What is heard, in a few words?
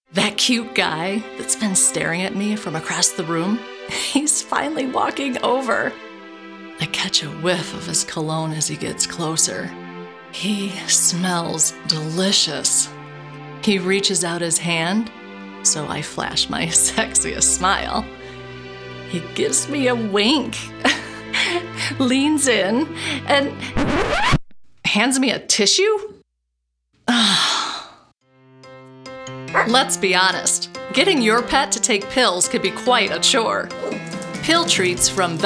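Female voices, Commercial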